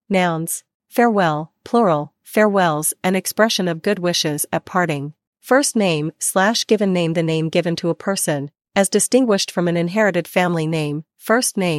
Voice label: voiceover